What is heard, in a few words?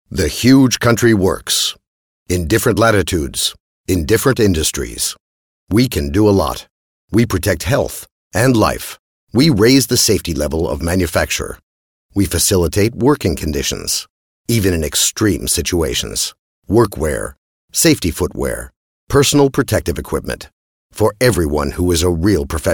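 American, male, voiceovers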